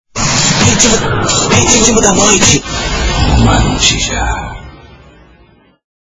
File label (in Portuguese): Vinheta; Ritimo Da Noite jb